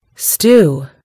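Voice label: "stew", British English; gcompris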